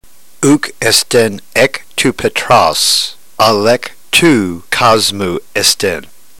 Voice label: drill, ntgreek